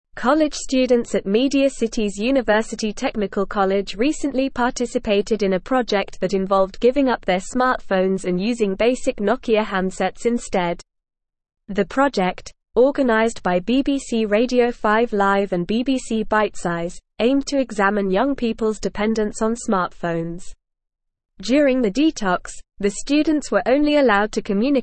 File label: English NORMAL Reading; Students Detox from Smartphones to Reflect on Usage